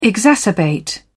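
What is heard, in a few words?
"exacerbate", British English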